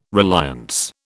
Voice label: "reliance", British